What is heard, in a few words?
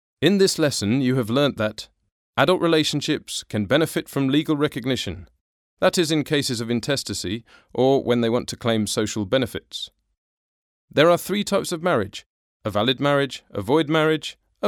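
English British male e-learning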